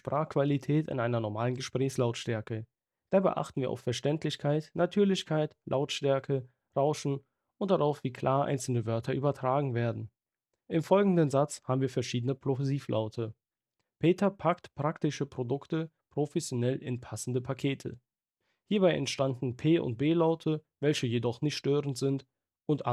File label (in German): Steelseries Arctis Nova Pro Omni Mikrofontest